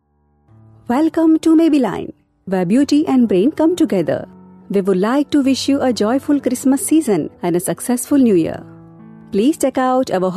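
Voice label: Gujarati Female English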